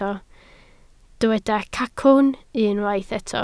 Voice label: "cacwn", Welsh